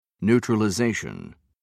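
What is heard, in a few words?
"neutralization", British English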